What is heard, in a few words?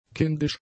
"kindisch", German; adjectives